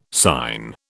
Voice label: "sign", British